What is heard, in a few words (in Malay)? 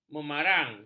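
"memarang", Malay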